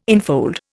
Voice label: "enfold", Indian